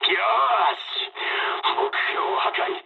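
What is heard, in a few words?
bomb success mood med, speech japanese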